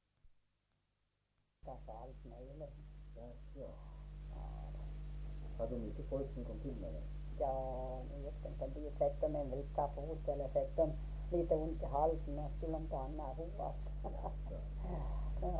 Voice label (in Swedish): Torsåker, dialekter; Svenska sagor; Folkminnen